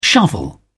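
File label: "shovel", British English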